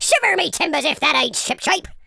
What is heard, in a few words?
flawless, Pirate